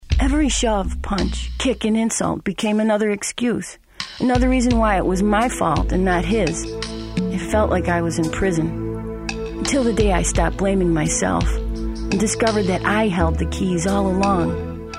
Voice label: Commercial Voice Demo; Track 2 abused woman